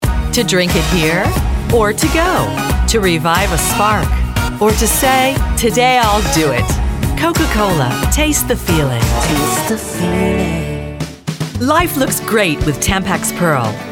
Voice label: Voice Reel Dynamic